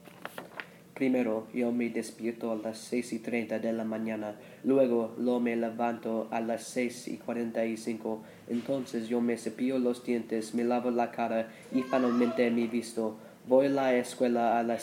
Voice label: morning routine in spanish

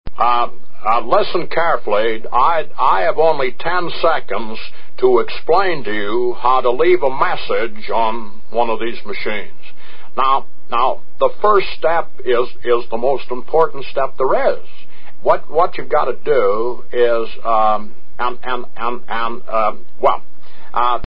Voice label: telephone answering message

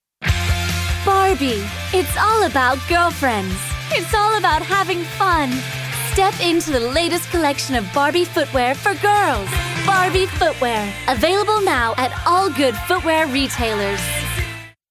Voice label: advert; barbie demo

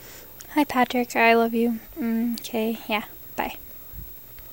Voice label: i'm sick so my voice is weird

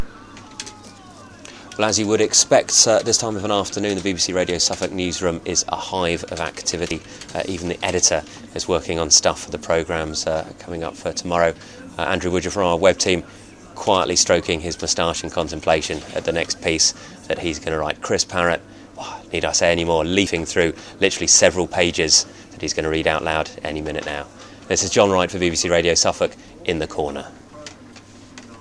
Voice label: just a normal afternoon at radio suffolk

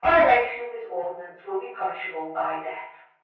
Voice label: railway announcement

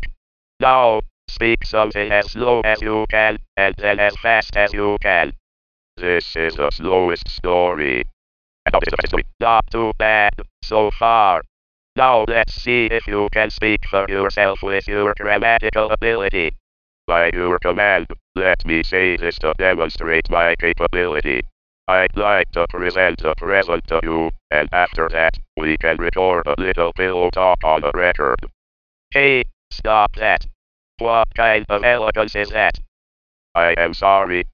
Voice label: accent synthesizer speech

